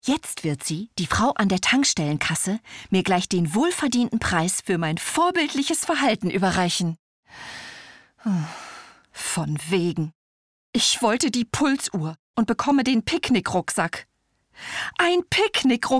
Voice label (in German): Hörbuch; LOFT VOICES